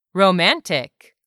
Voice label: "romantic", English; 3000 words